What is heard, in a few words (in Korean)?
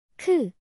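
"크", Korean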